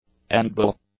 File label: "endbell", English; nouns